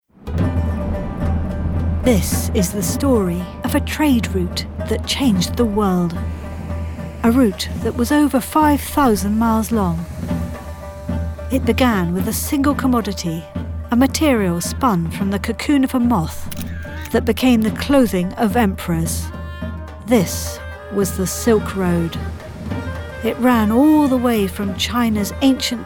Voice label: montage narrative

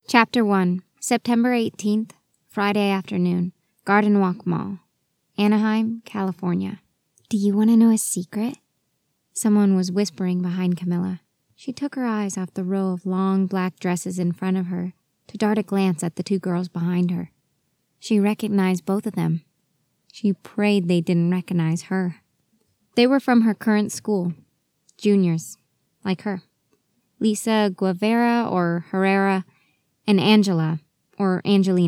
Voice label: audiobook sample

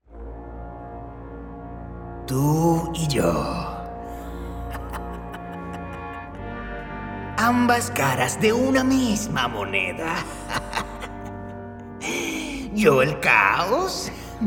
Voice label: Voice Acting

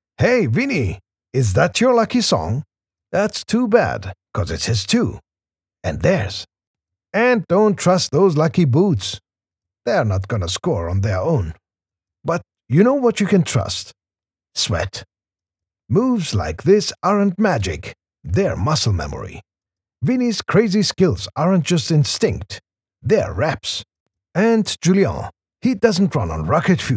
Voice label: COMMERCIAL ENGLISH

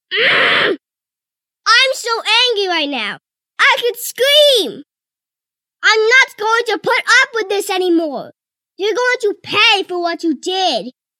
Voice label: Character Angry

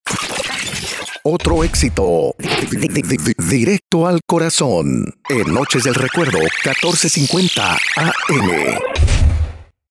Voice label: Radio Imaging

